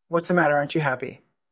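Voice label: VoiceActing es M2, voice acting, Spanish, male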